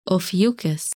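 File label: "Ophiuchus", English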